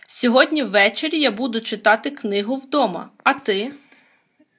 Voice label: dialogue, Ukrainian